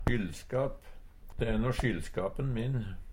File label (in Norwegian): sjyllskap, Numedalsmål; Substantiv hankjønn; De e skyllskapen min.